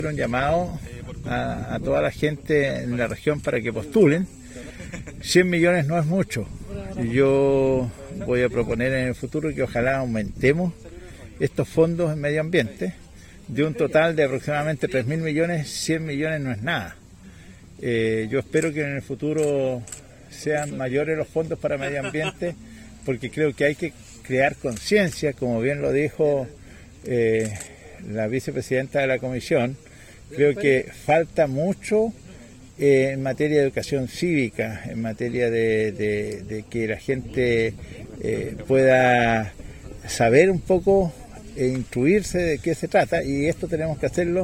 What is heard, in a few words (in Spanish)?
Cuña; Fondo Medio Ambiente; el fondo de proteccion del medio ambiente y educacion ambiental